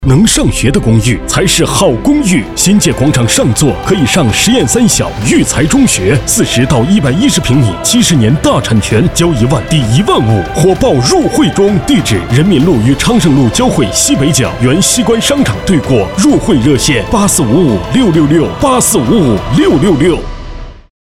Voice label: 男, 广告, 地产, 大气激情

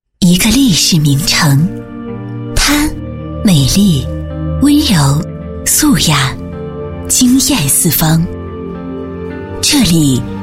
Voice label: 女 公益广告; 雅安

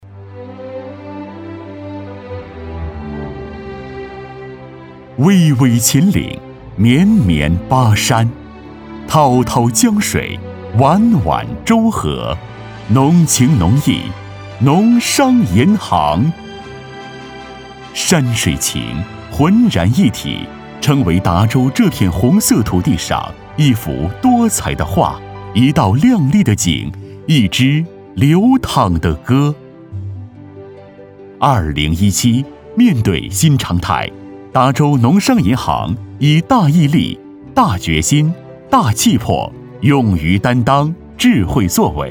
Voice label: 男 专题 汇报片 农商银行

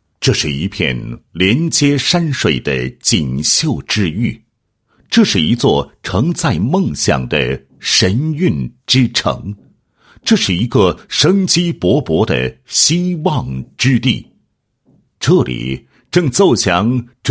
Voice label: 男 企业 特钢企业介绍宣传; 长城

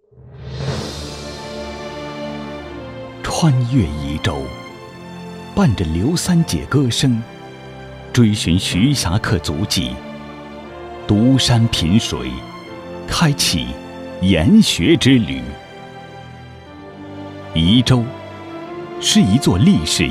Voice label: 男, 专题, 历史感, 感情

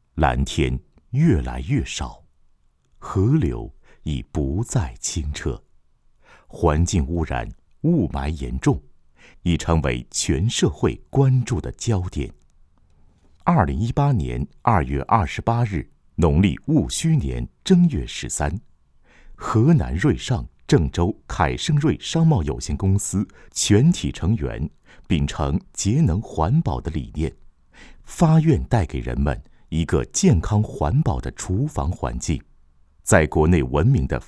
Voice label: 男 严肃解说 厨房电器

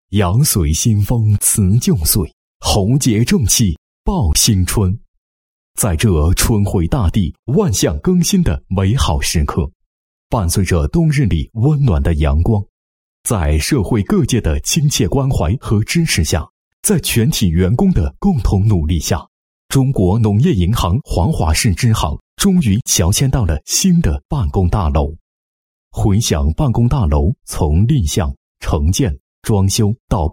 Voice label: 男 解说 某市农行迁址办公楼介绍

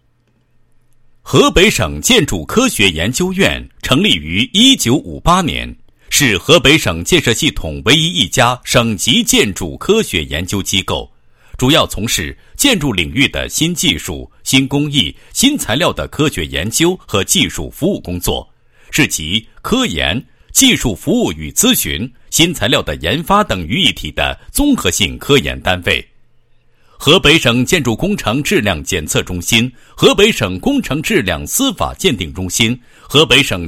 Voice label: 男-企业-建筑智慧 温暖人生, 配音案例

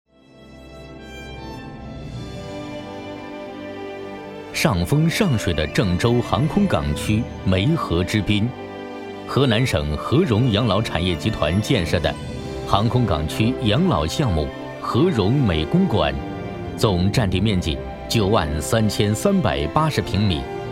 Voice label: 男, 宣传片, 公馆; 合融美公馆

